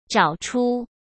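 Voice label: "找出", Mandarin Chinese; chinese-flashcards; hsk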